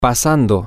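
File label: past Y, Spanish, male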